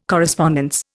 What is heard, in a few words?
"correspondence", Indian